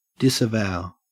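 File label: "disavow", Australian English; pronunciations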